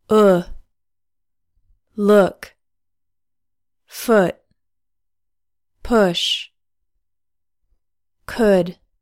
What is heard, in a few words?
english vowels